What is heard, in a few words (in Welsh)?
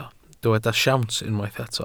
"siawns", Welsh